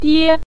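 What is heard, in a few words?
"die" Mandarin Chinese, neutral tone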